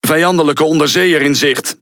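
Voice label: Speech Detection Enemy Sub, Dutch; World Of Warships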